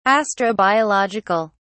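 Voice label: "astrobiological", British English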